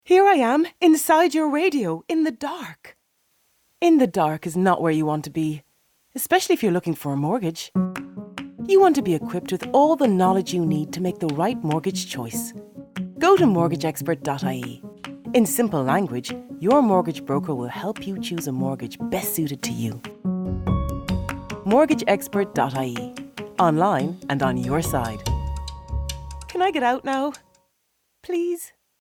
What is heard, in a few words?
mortgage expert radio advert